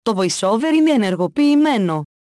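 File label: Greek VoiceOver On; EFI Audio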